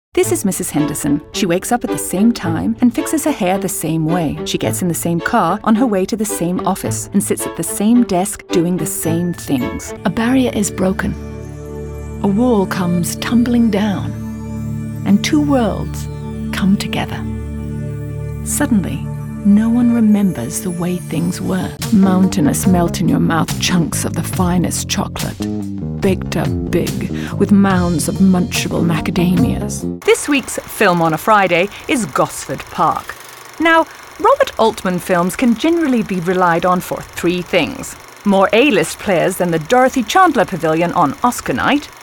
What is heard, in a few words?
commercial female